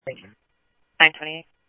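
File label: Simulcast police department, scanner audio trunk; Mesa Simulcast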